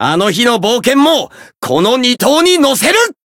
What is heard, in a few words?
variable chant, jp